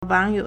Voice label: "wang3you3", Chinese; 网友; wǎngyǒu; web user; netizen

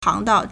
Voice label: "hang2dao4", Chinese

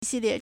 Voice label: "xi4lie4", Chinese

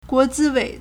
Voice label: "guo2zi1wei3", Chinese

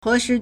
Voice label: "h2shi2", Chinese

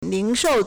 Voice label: "ling2shou4", Chinese

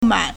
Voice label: "man3", Chinese

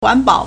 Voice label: "huan2 bao3", Chinese

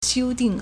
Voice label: "xiu1ding4", Chinese

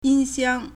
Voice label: "yin1 xiang1", Chinese

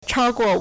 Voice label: "chao1guo4", Chinese

